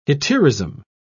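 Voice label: "hetaerism", English, British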